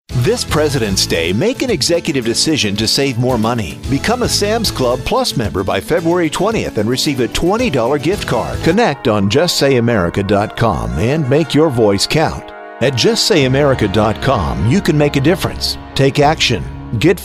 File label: Commercial